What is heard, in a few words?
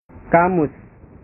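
"kamus", Indonesian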